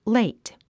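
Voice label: "late", English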